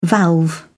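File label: "valve", British English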